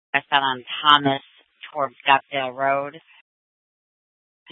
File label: Scottsdale PD Sth Dispatch, scanner